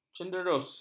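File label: "cenderus", Malay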